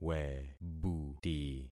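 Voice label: "webuti", concatenated syllables; Affixing experiment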